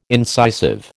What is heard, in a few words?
"incisive", American